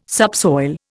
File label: "subsoil", Indian